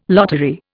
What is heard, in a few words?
"lottery", Indian